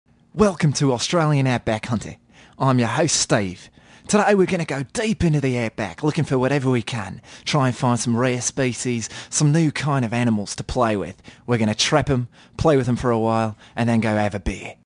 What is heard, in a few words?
Australian accent; Outback Hunter introduction